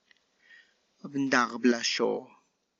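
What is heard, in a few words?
hierba santa leaf, Tlacochahuaya Zapotec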